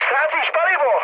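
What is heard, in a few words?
tank damaged, Czech, mood med; speech; War Thunder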